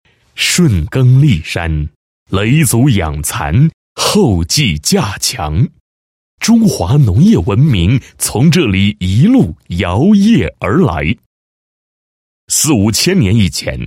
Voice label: 男-农业-农业飞机治防宣传简介, 配音案例; 绿康

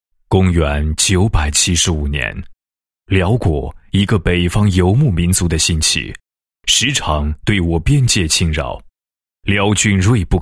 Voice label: 男 旅游 宣传, 配音; 天波杨府旅游宣传

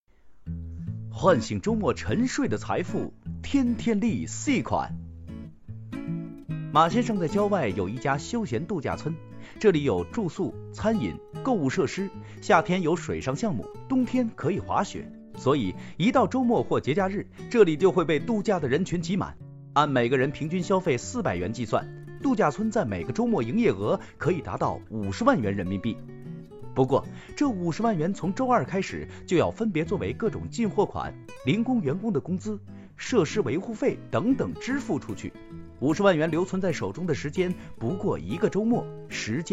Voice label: 男, 宣传片, 卡通感; 交行添天利

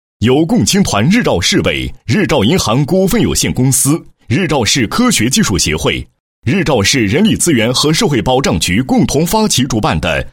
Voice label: 男 专题; 全市青年创新创业挑战赛

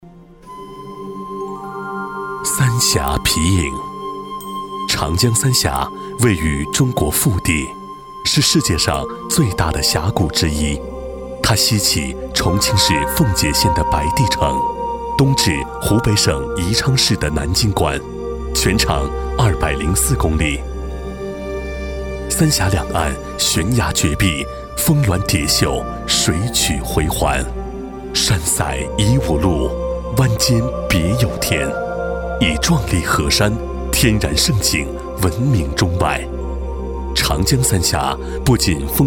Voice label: 男60 专题; 三峡皮影; 国语男声配音; 交广主播

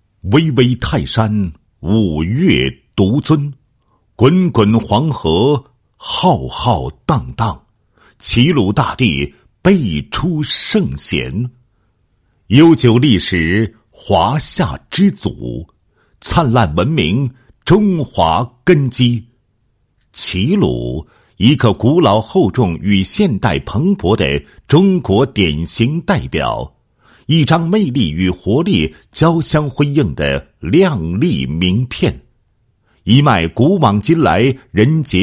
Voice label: 男 文化 宣传片; 中国齐鲁文化促进会宣传片

